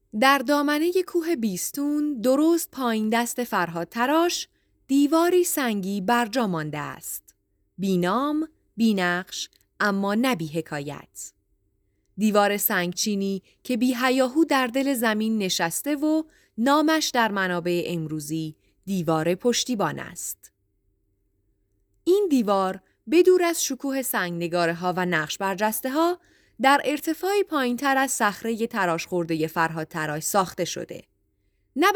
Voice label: bisotun retaining wall persian